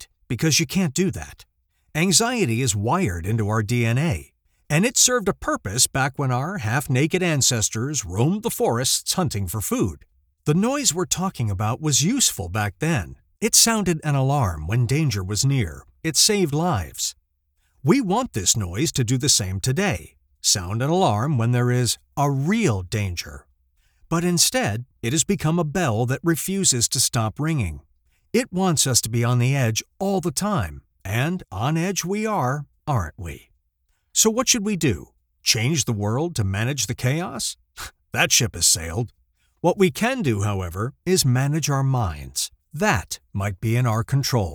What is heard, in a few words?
audiobook non-fiction self-help